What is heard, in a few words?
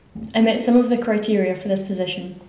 interviewee female